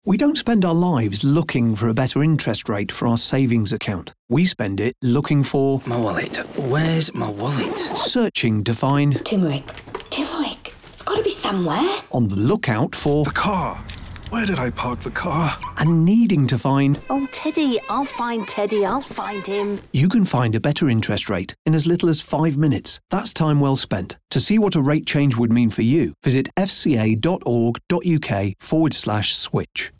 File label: radio commercial update